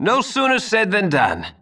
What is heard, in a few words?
Male; baldurs1